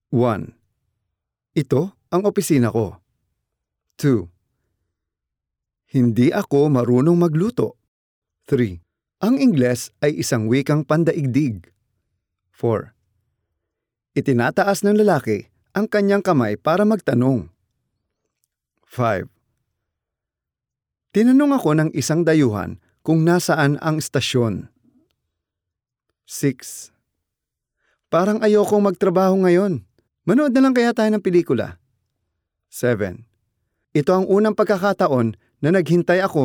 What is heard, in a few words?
tagalog corporate videos